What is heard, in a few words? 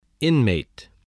"inmate", voice spelling